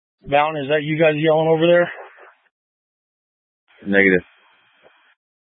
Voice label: Incident Tac 3, scanner